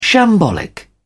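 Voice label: "shambolic", British English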